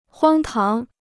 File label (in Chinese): "荒唐", Chinese